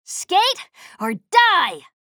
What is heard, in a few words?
chatter neutral; slash